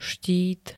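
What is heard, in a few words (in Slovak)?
"štít", Slovak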